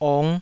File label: "ong5", taiwanese